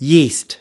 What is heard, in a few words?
"yeast", us